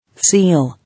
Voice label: "Seal", English